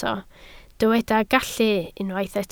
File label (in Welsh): "gallu" Welsh